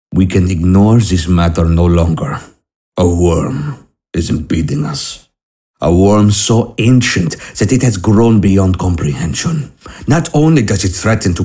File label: Narration; Wurm